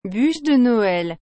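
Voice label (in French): "bûche de Noël", French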